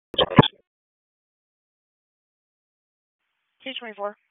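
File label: Police Northeast District Dispatch, Raleigh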